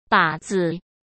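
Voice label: "靶子", Mandarin Chinese; hsk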